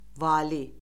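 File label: "vali", Azerbaijani; Orfoqrafiya Lüğəti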